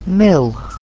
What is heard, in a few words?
"mill", English